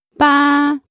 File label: Chinese Number 2